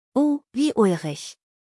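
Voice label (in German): "ulrich", German; U wie Ulrich; buchstabierung alt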